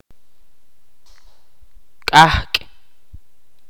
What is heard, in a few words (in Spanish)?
"fuego", Ch'orti'